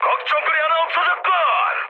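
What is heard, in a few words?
Korean player killed enemy mood high, speech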